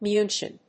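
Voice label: "Muenchen", English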